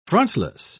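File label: "frontless", British English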